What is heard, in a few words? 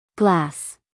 "glass", us female; everyone-can-use-english